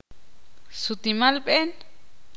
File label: ixil; lanzar